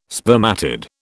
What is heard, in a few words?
"spermatid", British